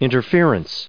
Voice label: "interference", us

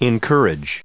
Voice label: "encourages", us

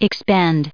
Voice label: "expend", us

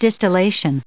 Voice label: "distillation", en us

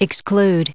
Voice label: "exclude", us

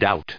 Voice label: "doubt", en us

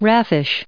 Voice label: "raffish", en us

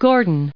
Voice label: "Gordon", us

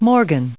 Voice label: "Morgan", us

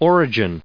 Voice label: "origin", us

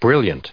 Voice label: "brilliant", en us

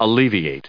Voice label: "alleviate", us

